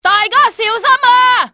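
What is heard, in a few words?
take cover, cantonese female; XBOX; enemy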